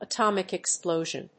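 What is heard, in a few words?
"atomic explosion", English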